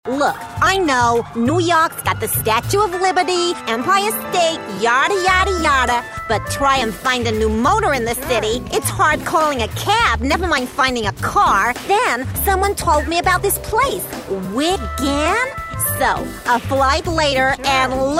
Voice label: acting characters